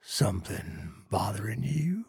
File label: trader male neutral greeting